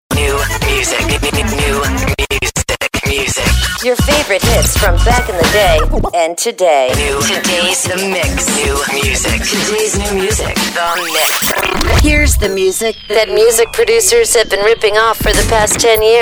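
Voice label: imaging voice; Formats AC, CHR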